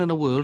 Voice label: "iN THe world", Welsh